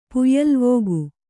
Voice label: "ಪುಯ್ಯಲ್ವೋಗು", kannada